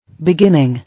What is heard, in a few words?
"Beginning", English